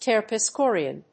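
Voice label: "Terpsichorean", English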